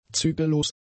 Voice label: "zügellos", German; adjectives